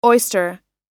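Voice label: "oyster", US English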